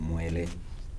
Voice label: "knife", Kibembe